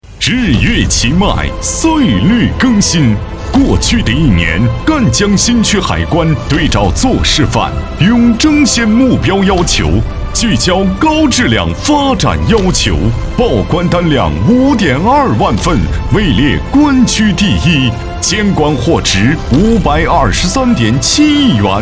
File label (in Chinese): 激情颁奖